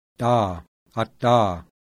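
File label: voiceless dental plosive; IPA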